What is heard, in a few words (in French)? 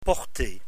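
"porter", French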